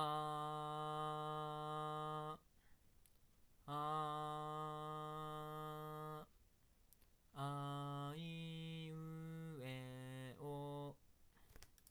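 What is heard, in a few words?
X-Fi GO Pro mic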